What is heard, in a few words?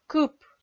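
"кып", Komi-Zyrian, female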